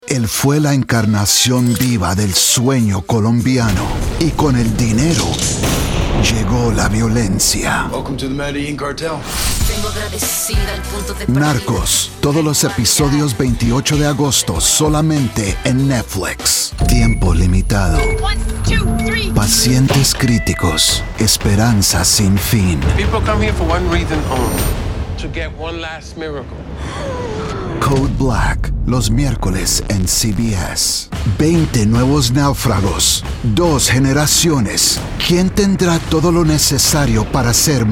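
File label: voice over SPANISH Promo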